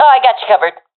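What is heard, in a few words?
covering friend, professional female; vo; agents; Source Model Packs; Counter Strike Model Pack; CS2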